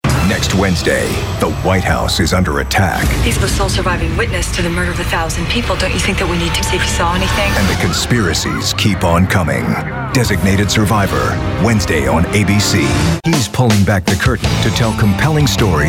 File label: Voiceover, Promos, Network/cable, Men; New York